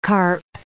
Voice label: "carp", English